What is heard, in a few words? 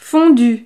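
"fondu", French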